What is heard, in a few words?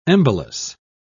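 "embolus", British English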